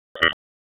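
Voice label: Police District Dispatch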